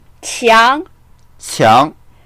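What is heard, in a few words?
"qiang2", Mandarin Chinese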